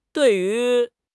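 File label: "dui4 yu2", Mandarin Chinese; HSK4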